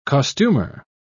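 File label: "costumer", British English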